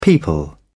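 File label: "people", British English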